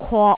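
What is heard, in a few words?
"kwok3", Jyutping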